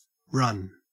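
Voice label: "run", Australian English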